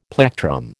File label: "plectrum", American